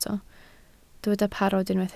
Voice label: "parod", Welsh